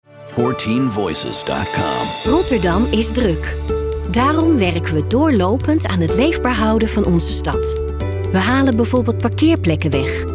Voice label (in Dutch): Narratief